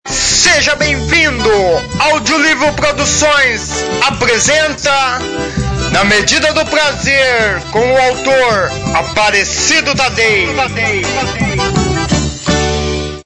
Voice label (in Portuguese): audio livros